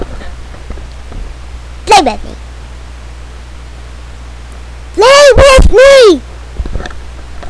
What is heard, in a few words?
grumpy bug; things my daugher said when she was five